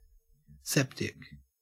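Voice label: "septic", Australian English; pronunciations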